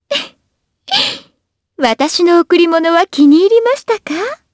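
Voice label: vox dialogue, voices, Japanese; Shamilla; kingsraid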